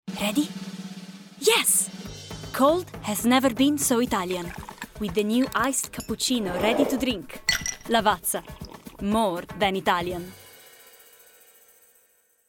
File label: English with Italian accent